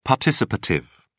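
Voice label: "participative", British English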